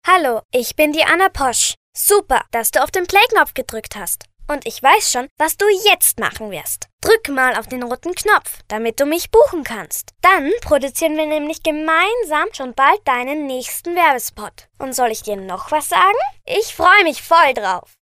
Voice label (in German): Sprecher Kinder Neutral